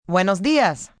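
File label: Good Day Spanish female; Greetings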